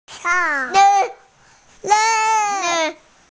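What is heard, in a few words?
"shang4", Mandarin Chinese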